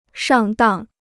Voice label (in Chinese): "上当", Chinese